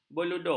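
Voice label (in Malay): "beluduk", Malay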